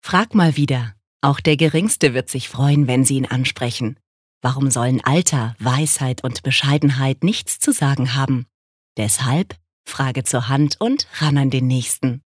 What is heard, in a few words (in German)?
Werbung Sanft